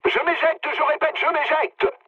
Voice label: bailout mood med, speech fr; War Thunder